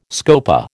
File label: "scopa", American